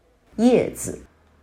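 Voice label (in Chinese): "叶子" Mandarin Chinese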